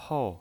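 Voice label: "hou", Mandarin Chinese, fourth tone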